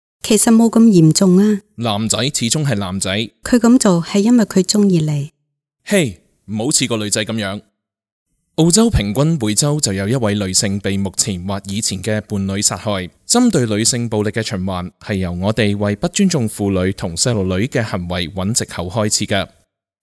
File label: cantonese